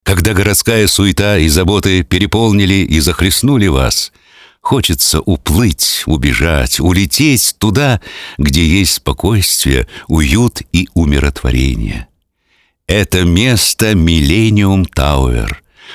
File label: Rus